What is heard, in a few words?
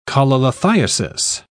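"cholelithiasis", British English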